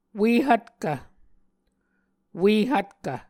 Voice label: "ue hvtkv", Muscogee

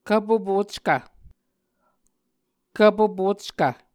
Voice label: "kvpopockv", Muscogee

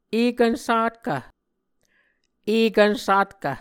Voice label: "ekvn satkv", muscogee

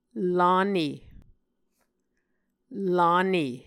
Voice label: "lane", Muscogee

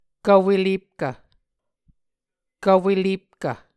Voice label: "kv welepkv", Muscogee

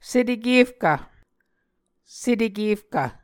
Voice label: "setekefkv", Muscogee

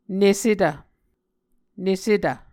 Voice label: "nesetv", Muscogee

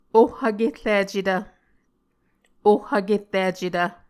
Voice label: "oh vkerricetv", Muscogee

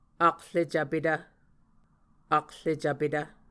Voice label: "akrecvpetv", muscogee

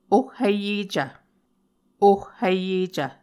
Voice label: "ohhiyecv", Muscogee; Mvskoke Words with Repeated Consonants; A Dictionary of Creek/Muskogee; SIL International

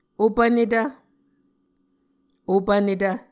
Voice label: "opvnetv", Muscogee

